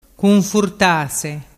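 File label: "cunfurtase", Vernazzese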